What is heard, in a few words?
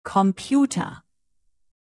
alphabet de native computer, C